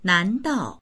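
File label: "nan2dao4", Chinese; 难道; まさか…ではあるまい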